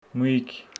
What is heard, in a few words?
Homshetsi strawberry